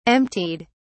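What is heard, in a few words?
"emptied", British English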